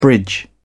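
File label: "bridge", English, British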